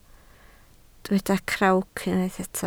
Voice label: "crawc", Welsh